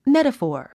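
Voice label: "metaphor", English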